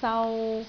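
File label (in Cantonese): "sau3", Cantonese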